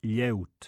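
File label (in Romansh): "glieud", Romansh, Sursilvan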